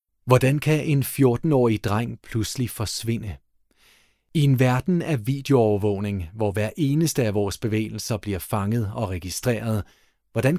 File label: danish podcasts